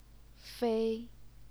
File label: "fei1", chinese pinyin